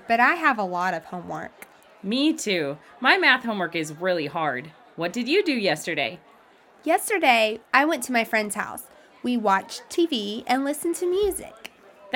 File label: Conversation, English